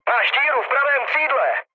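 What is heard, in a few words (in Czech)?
Czech right wing, mood low; War Thunder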